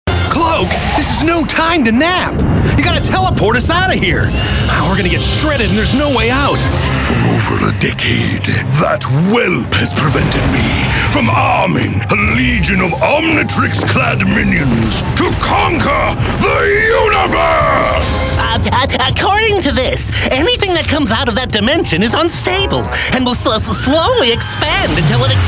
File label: Animation